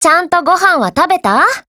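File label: HELLO, Japanese; Type62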